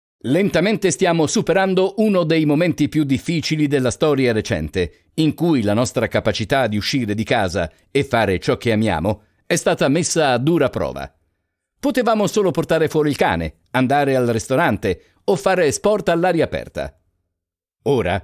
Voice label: 意大利语男 外语 小语种

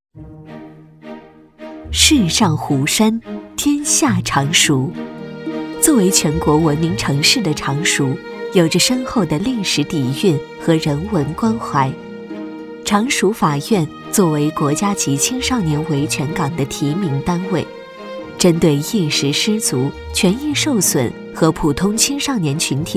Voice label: 宣传片 城市 稳重; 常熟; 女

